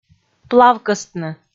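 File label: "плавкӧстны", Komi, female; audio dict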